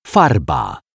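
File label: "farba", Polish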